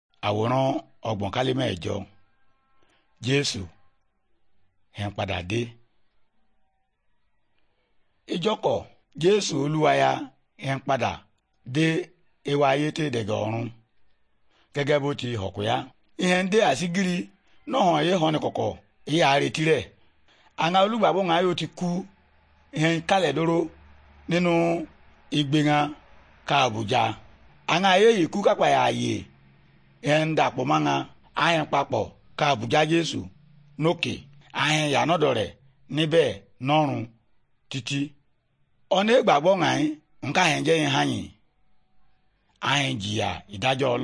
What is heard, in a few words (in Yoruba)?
good news yoruba, bible